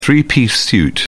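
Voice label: "three-piece suit", British English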